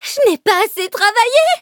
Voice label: defeat, fr; cookie run

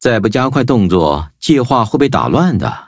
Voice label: loading, zh-hant; cookie run

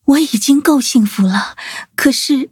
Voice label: loading, zh-hant; cookie run

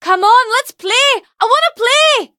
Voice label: level up, en; Cookie Run

